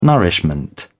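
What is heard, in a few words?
"nourishment", British English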